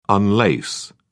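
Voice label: "unlace", English, British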